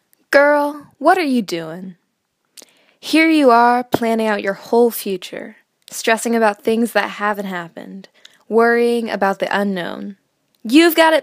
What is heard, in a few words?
monologue recording